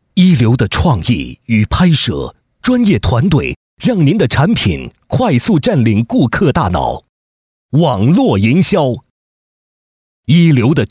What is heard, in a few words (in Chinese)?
男 力度类, 国语男声配音; 视频营销